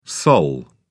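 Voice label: "sol", English, British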